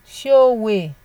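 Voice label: "show way", Nigerian Pidgin, female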